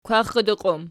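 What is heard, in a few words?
"kwaxxidiqi" plural, Archi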